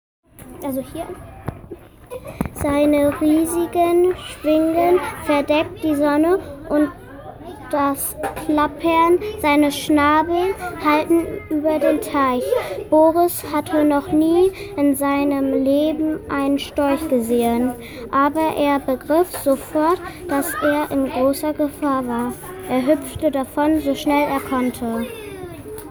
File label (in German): Sprachmemo